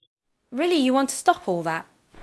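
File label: Voice Acting Spanish Female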